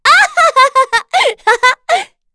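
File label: Vox Happy, voices heroes English; kingsraid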